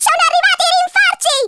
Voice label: DROP, Speech, Italian; Worms Armageddon

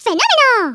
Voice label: "excellent", Hispanic; Worms Armageddon

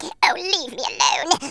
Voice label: "Leave me alone", Wacky

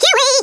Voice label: "HELLO", Wacky

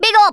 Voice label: "dragon punch", Rasta

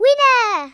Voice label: victory, Speech Kidz; Worms Armageddon

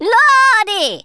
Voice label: jump, Rasta

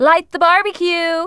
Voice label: "INCOMING", Smooth Babe, Speech; Worms Armageddon

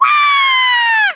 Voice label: "bungee", Scouser

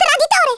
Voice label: "traitor", Italian; Worms Armageddon

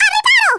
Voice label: TAKE COVER, Italian; Worms Armageddon

